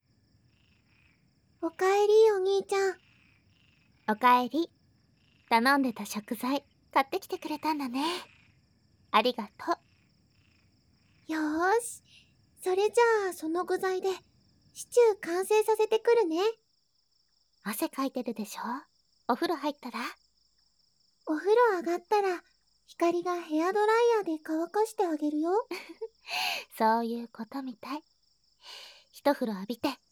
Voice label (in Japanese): おかえり (環境音有り)